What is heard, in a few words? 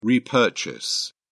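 "repurchase", British English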